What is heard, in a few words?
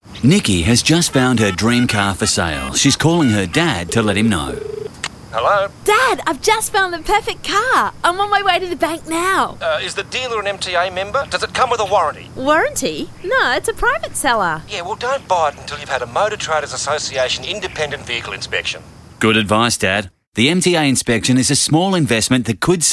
radio ad